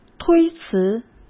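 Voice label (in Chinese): "推辞", Mandarin Chinese; chinese-flashcards; hsk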